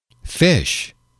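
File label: "fish", English, male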